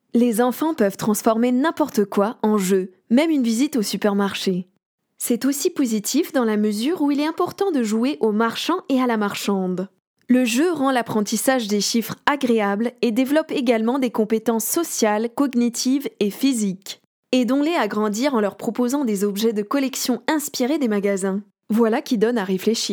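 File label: French female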